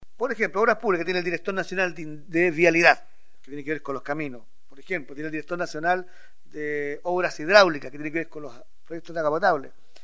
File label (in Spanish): Cuña